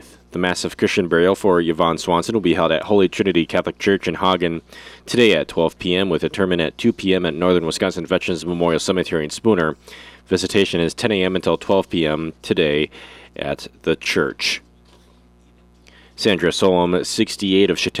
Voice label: Wednesday Funeral Announcements